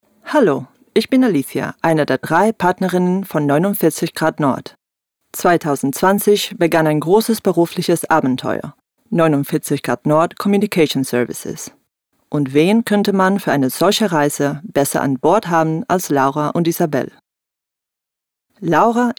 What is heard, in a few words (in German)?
Vorstellung, DE